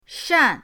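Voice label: "shan", Mandarin pinyin, fourth tone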